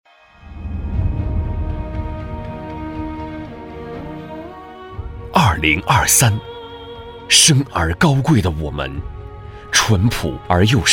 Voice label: 男 公司宣传 年度总结报告 沉稳舒缓; 男25-年轻激情 沉稳大气

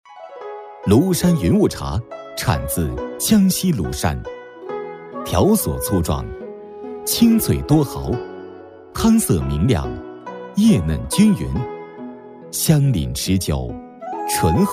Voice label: 男 广告配音 大气厚重舒缓; 年轻全能 成熟厚重; 云雾茶; 广告配音《云雾茶》-大气厚重舒缓

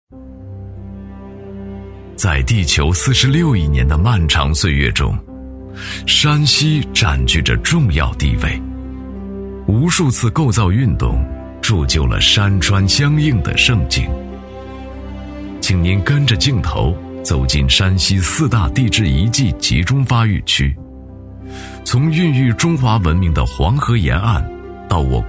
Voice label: 男 城市纪录片 纪录讲解; 纪实记录; 《山西》

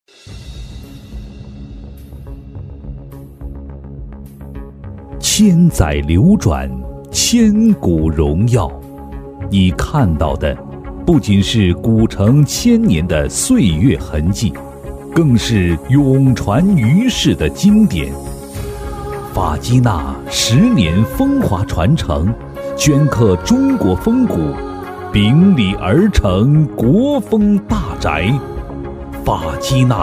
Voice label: 男 房地产广告 大气舒缓; 法姬娜·新城首府; 男60-角色方言

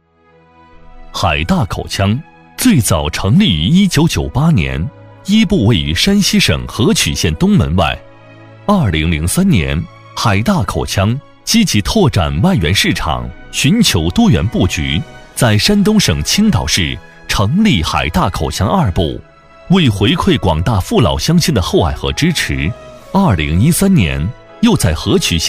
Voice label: 男 介绍宣传 大气厚重; 海大口腔

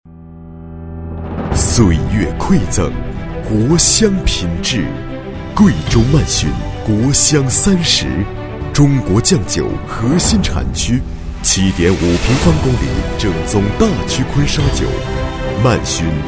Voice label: 男, 广告, 厚重; 质感磁性 成熟厚重

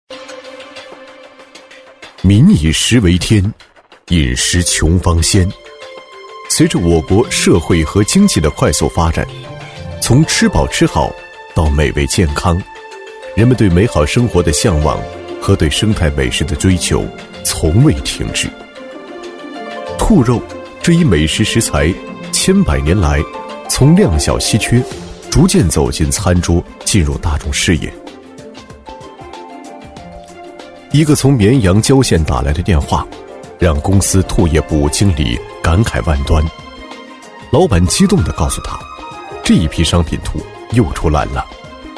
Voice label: 男, 舌尖感觉, 大气主流 舌尖感; 肉兔养殖; 瑞玖鸿肉兔养殖

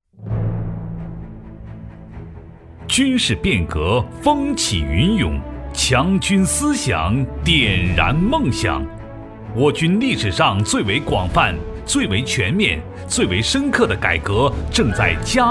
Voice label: 男 军旅专题 硬朗大气; 大气浑厚; 男60-军旅专题-硬朗大气

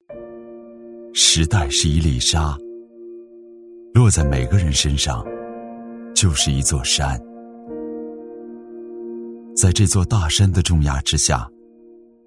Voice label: 男 走心叙述; 时代是一粒沙; 质感磁性

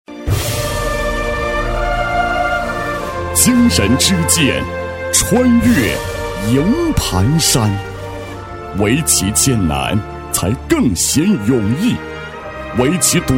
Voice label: 男, 厚重大气 大气浑厚; 营盘山精神

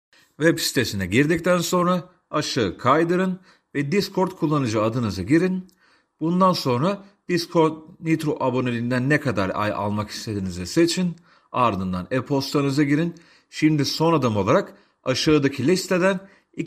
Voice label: 土耳其男声

